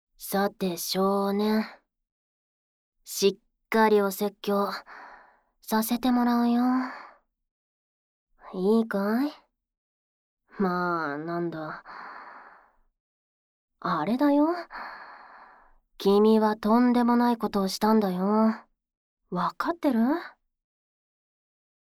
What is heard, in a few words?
Dialog only, ASMR; SE CUT（効果音カット版）; ウチの居候のダークエルフ